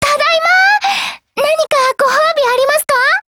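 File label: operation over, Japanese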